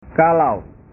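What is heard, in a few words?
"kalau", Indonesian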